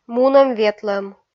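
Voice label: "мунӧм-ветлӧм", Komi, female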